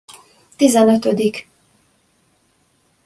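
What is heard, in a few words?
"tizenotodik", Hungarian pronunciation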